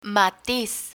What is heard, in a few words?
"matiz", Spanish; learn spanish